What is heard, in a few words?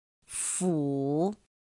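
"fǔ", Chinese; pinyin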